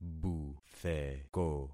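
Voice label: "butheko", concatenated syllables; Affixing experiment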